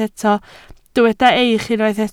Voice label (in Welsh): "eich", Welsh